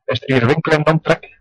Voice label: is there a shop, west flemish